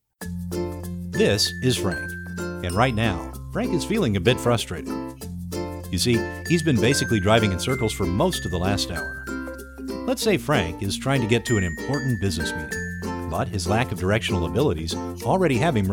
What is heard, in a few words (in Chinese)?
外籍男 洗碗机产品描述, 美国英语配音